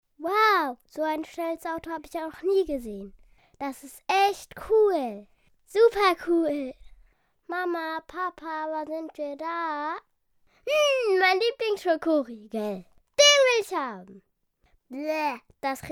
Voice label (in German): emotion; Kindersprecher